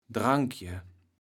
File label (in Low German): "Drankje", kaevels platt